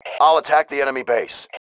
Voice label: attacking base, male